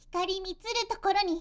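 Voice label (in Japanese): magi girl "hikarimiturutokoroni", Japanese; magi syozyo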